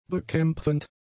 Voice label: "bekämpfend", German; adjectives

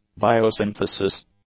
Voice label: "biosynthesis", English

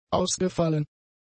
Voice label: "ausgefallen", German; adjectives

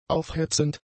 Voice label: "aufhetzend", German; adjectives

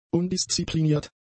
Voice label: "undiszipliniert", German; adjectives

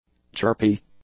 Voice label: "chirpy", English; adjectives

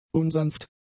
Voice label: "unsanft", German; adjectives

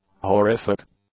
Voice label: "horrific", English

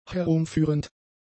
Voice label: "herumführend", German; adjectives

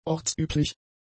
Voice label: "ortsüblich", German; adjectives

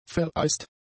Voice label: "vereist", German; adjectives

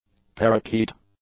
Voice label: "parakeet", English; nouns

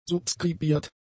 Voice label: "subskribiert", German; adjectives

